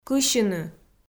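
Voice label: "кысьыны", Komi, female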